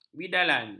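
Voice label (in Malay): "bidalan", Malay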